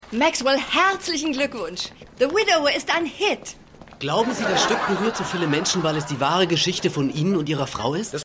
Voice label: Reporterin Reporter; Die Nanny

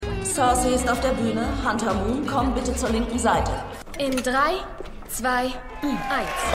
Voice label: Durchsage; SetAufnahmeleiterin

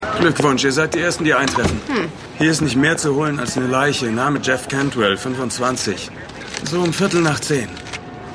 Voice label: Polizist, Stimmproben

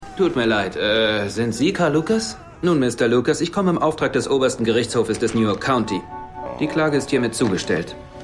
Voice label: Junger Mann; Luke Cage

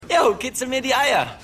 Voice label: Schueler; The Wire

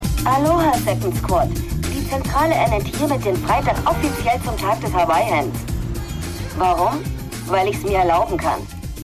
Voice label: Disponentin; The Unusuals

